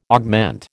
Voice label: "augment", American